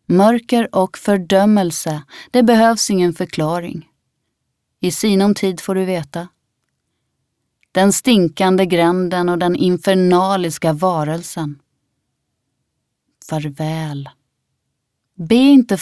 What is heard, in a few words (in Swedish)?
svensk kvinna